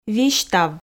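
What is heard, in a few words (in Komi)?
"висьтав", female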